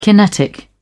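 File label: "kinetic", British English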